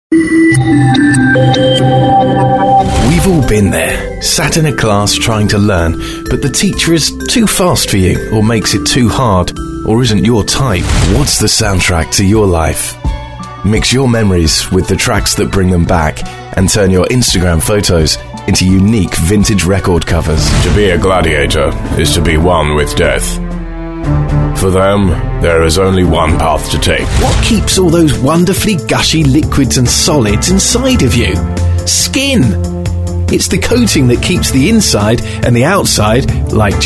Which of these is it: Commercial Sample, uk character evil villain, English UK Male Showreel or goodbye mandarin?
English UK Male Showreel